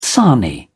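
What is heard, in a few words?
"sarnie", British English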